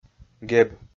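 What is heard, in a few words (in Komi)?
"геб", Komi-Zyrian, male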